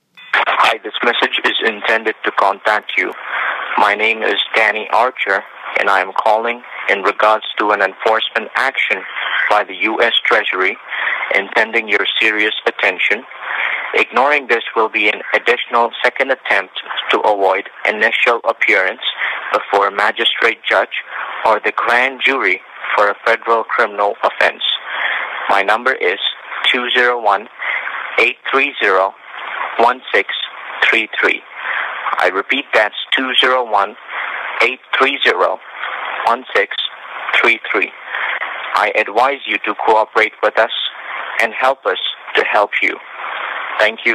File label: IRS Scam Voicemail